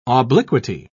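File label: "obliquity", British English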